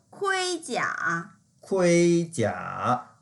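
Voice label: "kui1jia3", Mandarin Chinese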